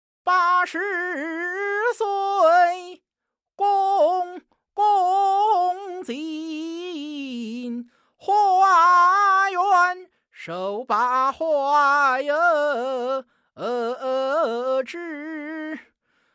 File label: Male WenChou Dialogue Libretto; Long; COPO